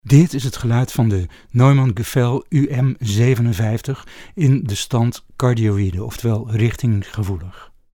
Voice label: Neumann UM57 NL